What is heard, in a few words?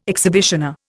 "exhibitioner", Indian